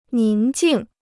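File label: "宁静", Chinese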